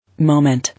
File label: "Moment", English